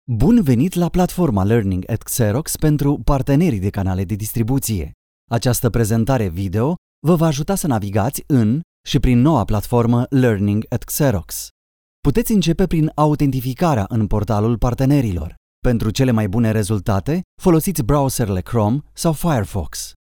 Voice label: romanian e-learning

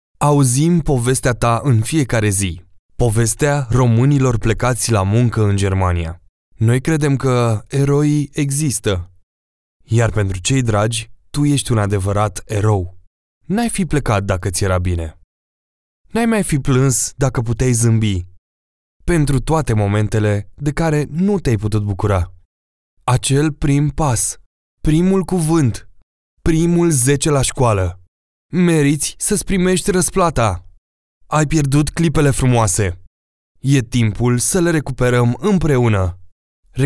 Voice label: romanian narration

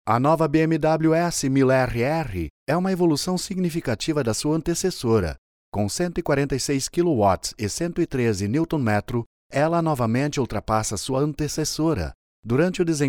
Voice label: portuguese brazilian automotive

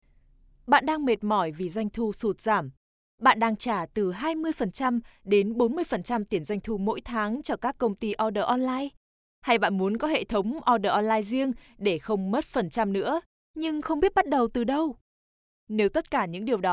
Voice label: vietnamese promos

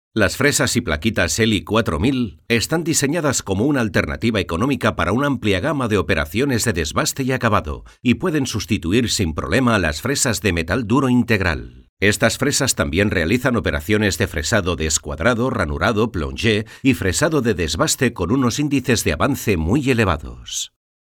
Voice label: spanish explainer videos

